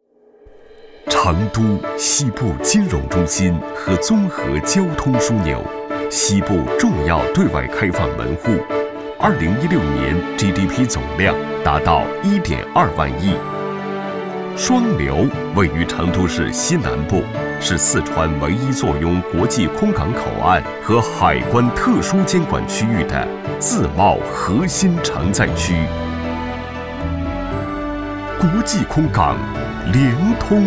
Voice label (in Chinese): 专题片 稳重大气